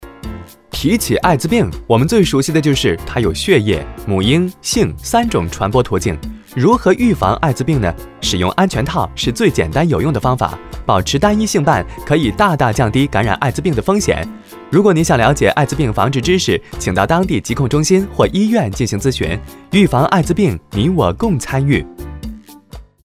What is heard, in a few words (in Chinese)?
男, 中文, 动画类; 飞碟说-如何预防艾滋病